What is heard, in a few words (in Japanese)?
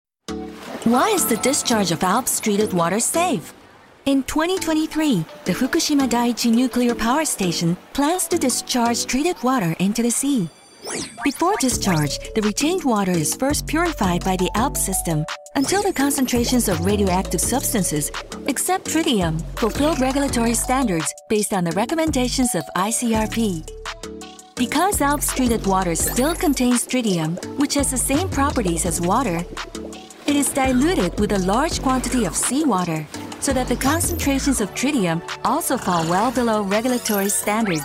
明るい、フレンドリー、爽やか; 外務省ALPS